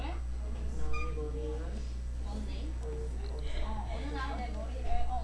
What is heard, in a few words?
announcer